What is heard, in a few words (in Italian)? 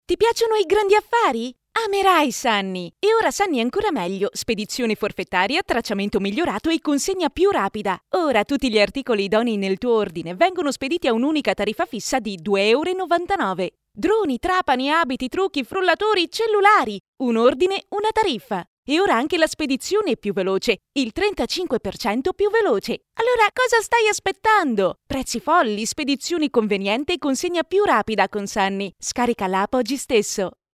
Energetica